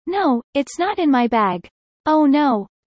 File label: fast; studying abroad